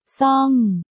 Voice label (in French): Fourchette, thai